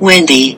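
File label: US English; claymore; Wendy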